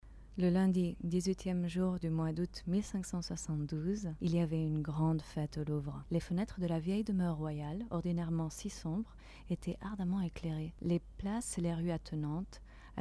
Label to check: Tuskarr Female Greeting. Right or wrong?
Wrong